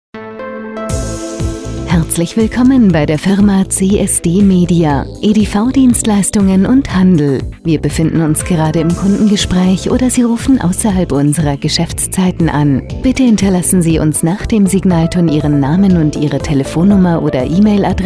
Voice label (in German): Ansage; CSDMedia